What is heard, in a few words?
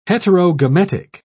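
"heterogametic", English, British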